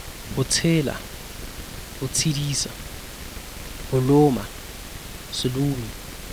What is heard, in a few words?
Sesotho close raising